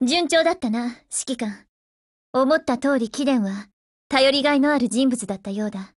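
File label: WIN, Japanese, Voice; GF Alarm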